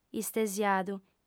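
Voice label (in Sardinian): "istesiadu", Sardinian, female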